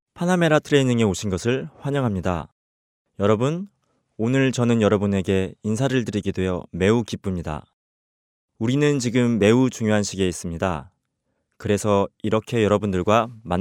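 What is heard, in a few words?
Korean, male; Professionelle Sprecher und Sprecherinnen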